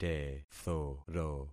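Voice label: "tethoro", concatenated syllables; Affixing experiment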